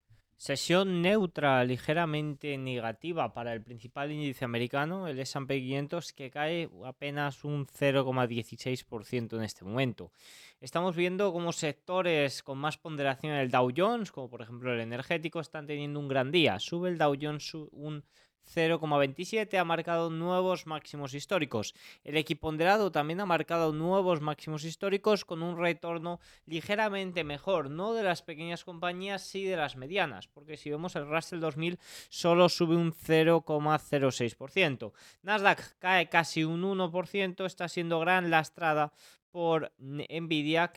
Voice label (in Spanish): radio; noticias bolsa